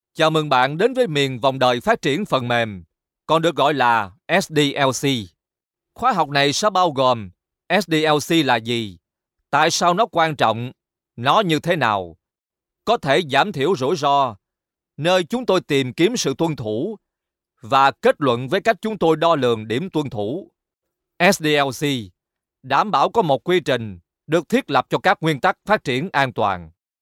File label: vietnamese voice elearning